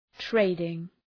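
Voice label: "trading", English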